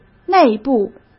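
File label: "内部", Mandarin Chinese; chinese flashcards; hsk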